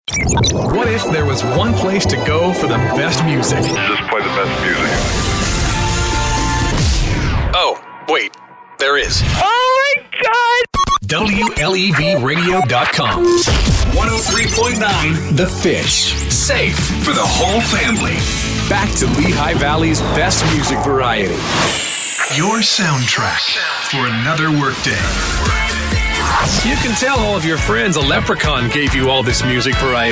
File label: Radio Imaging